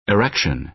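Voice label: "erection", American English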